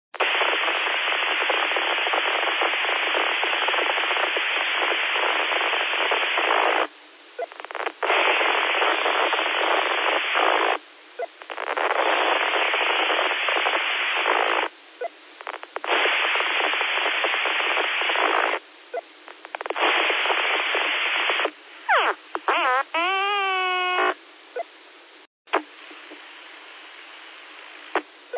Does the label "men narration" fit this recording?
No